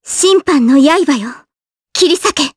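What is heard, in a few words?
voice Skill, voices heroes, Japanese; Kirze; kings raid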